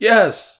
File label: Voices exasperated; The Looker